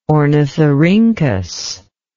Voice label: "ornithorhynchus", English

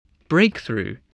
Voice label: "breakthrough", spelling

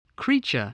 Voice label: "creature", English

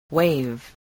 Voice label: "wave", spelling

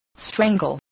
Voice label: "strangle", spelling

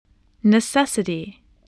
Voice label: "necessity", voice

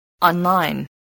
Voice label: "online", voice, spelling

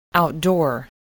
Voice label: "outdoor", voice

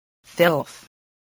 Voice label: "filth", spelling

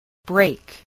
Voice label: "break", spelling

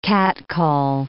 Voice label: "catcall", English

